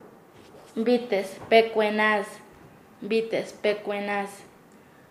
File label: "dar paliza", Nasa Yuwe; paez